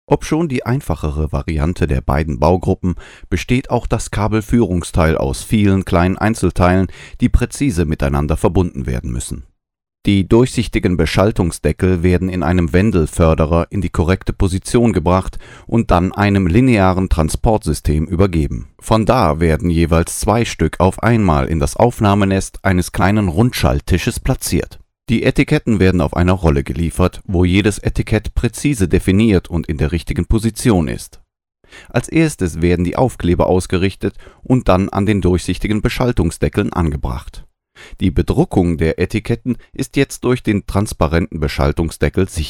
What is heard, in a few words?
German robot factory process